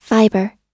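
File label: "fiber", English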